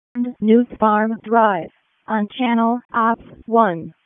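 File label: Fire Department ALERT, p25; RFD; wake p25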